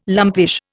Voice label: "lumpish", Indian